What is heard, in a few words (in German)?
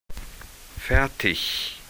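"fertig", German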